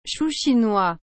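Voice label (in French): "chou chinois", French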